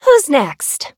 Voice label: levelup en